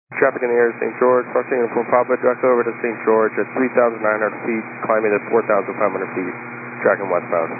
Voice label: 122 925MHz, scanner radio